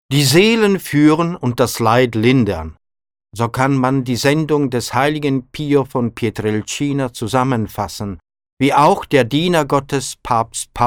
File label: documentary